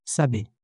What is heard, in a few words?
"Saber", occitan